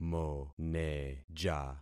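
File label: "moneja", concatenated syllables; Affixing experiment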